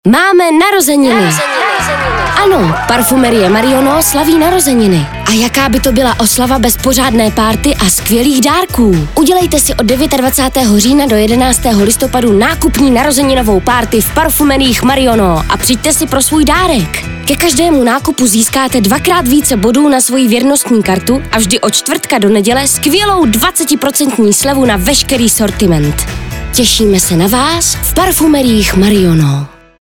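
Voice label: Czech, female, commercial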